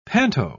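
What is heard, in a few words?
"panto", British English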